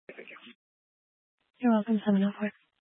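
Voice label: Sheriff Dispatch, Scanner audio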